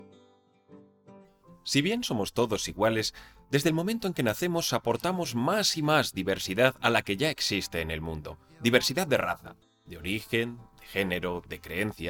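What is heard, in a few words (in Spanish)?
corporativo España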